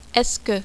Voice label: est ce que, French

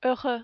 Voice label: "heureux", French

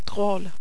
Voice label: "drole", French

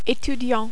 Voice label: "etudiant", French

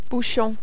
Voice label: "bouchon", French

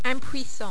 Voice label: "impuissant", French

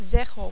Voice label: "zero", French

